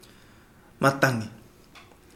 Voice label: wind, Kapingamarangi